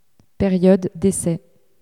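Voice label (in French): "periode d essai", French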